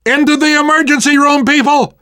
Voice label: world hospital, namvet, survivor voice